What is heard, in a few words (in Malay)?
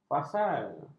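"fasal", Malay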